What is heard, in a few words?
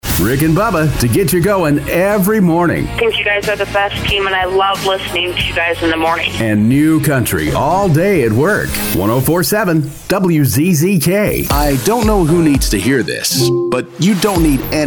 Radio Imaging